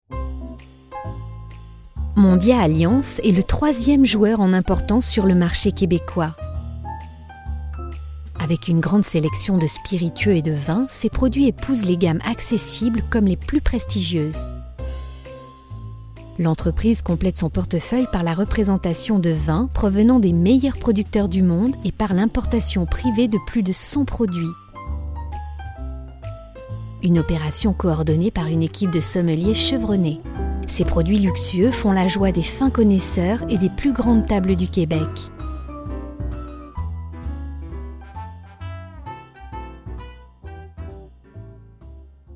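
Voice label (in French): French, female, commercial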